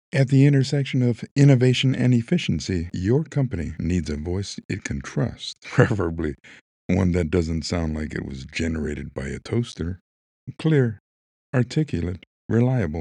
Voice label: Narrative